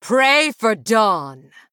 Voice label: Huntress Female Night Elf Attack